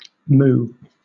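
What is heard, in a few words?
"moue", British English; pronunciations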